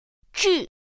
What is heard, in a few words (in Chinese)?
"zhì", pinyin; pinyin2; pinyinji